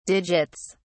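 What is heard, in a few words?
"digits", en, British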